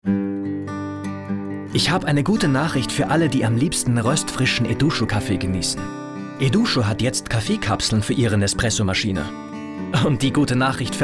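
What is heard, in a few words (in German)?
Werbung natürlich; Eduscho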